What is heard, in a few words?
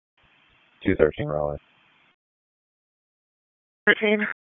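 Police Northeast District Dispatch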